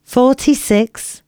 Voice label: announcements female